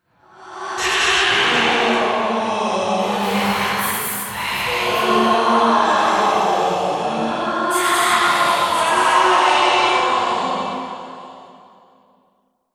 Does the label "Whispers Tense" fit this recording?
Yes